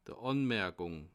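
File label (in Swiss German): "anmerikung", Bas Rhin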